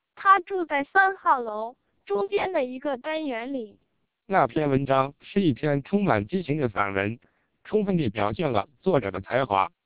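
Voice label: Chinese speech, TWELP 700 bps vocoder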